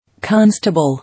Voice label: "Constable", English

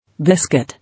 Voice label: "Biscuit", English

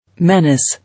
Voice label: "Menace", English

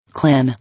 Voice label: "Clan", English

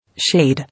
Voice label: "Shade", English

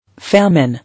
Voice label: "Famine", English

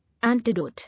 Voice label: "Antidote", English

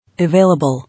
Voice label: "Available", English

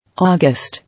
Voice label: "August", English

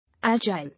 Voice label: "Agile", English

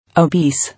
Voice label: "Obese", English

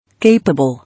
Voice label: "Capable", English

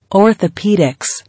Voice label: "Orthopaedics", English

